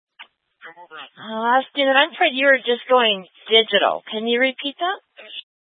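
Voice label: Sheriff Dispatch, scanner audio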